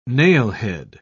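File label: "nailhead", British English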